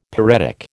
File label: "pyretic", American